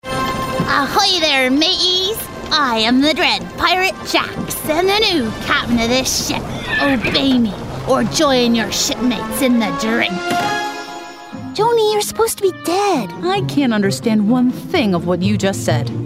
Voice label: Animation